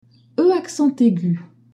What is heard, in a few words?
"e accent aigu", French